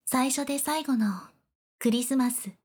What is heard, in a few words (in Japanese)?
タイトルコール, ASMR; noBGM